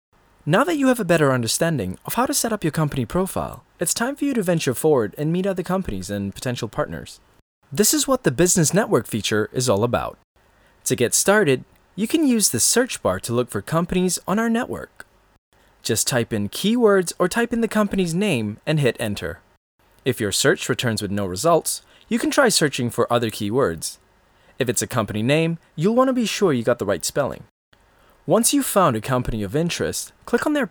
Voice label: Tutorial VO